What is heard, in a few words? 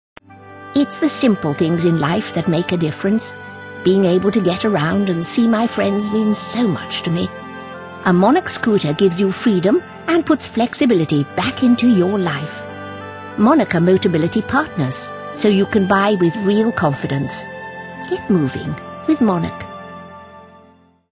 readings